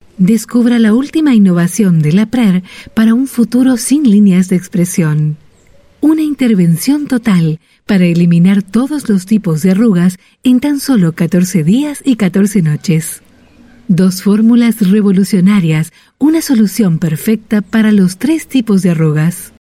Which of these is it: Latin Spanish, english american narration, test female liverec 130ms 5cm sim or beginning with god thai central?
Latin Spanish